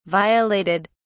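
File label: "violated", English, British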